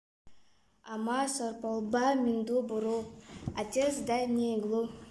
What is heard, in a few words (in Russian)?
Отец дай мне иглу нанайский